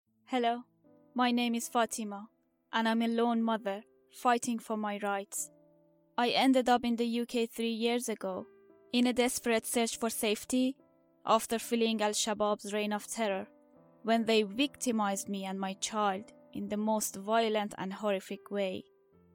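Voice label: Dari AccEN, Dari accented English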